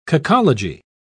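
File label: "cacology", British English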